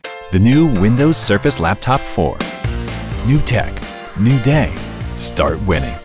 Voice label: Commercial; Windows Surface Laptop